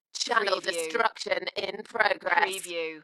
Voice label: UK female Channel destruction in progress Dalekness; beanstalk audio